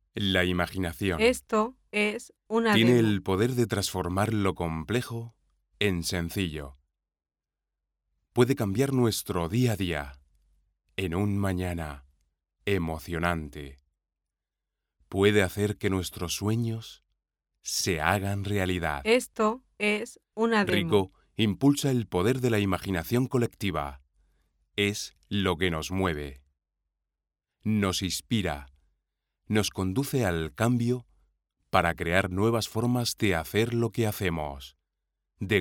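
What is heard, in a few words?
spanish male narration; voice demos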